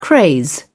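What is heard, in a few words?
"craze", English, British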